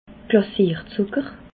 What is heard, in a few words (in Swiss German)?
"glazier zucker", alsace